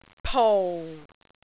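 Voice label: "pou4", Cantonese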